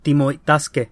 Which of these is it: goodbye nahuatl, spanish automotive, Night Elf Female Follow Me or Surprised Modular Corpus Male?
goodbye nahuatl